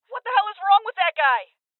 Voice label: Female